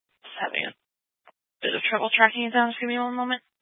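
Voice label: Police 1 Dispatch, Scanner audio recording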